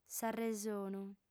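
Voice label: "s'arresonu", female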